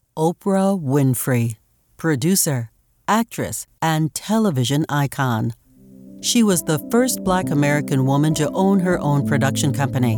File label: Narration Biography